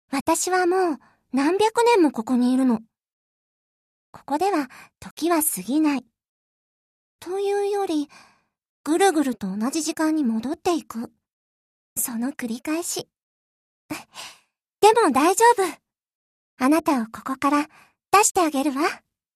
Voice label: mysterious girl

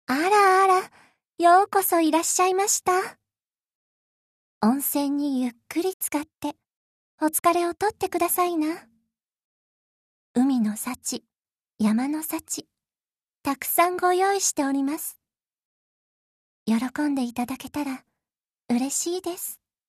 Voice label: young proprietress of an inn

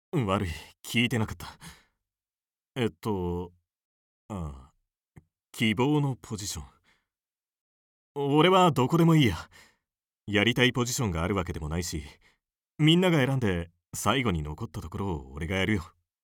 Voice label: passive college student

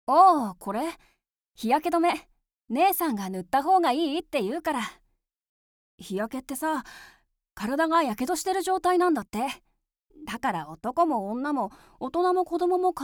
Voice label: calm boy

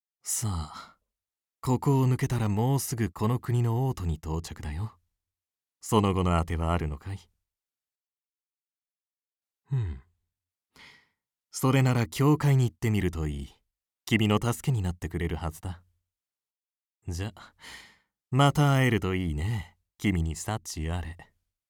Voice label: mysterious

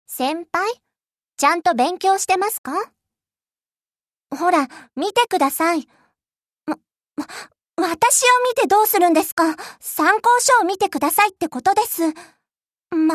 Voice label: serious junior

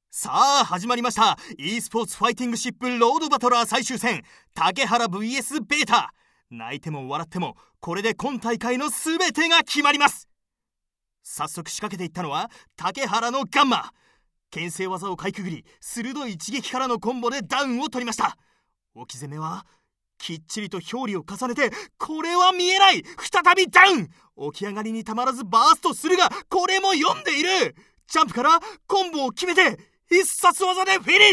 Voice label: game commentator; ゲーム実況者

